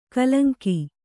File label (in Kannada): "ಕಲಂಕಿ", kannada